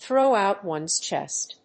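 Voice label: "throw out ones chest", English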